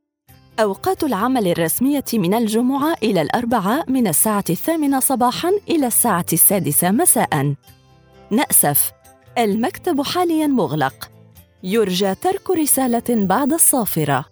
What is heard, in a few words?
IVR arabic